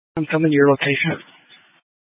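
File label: Incident Tactical 1, Scanner audio recording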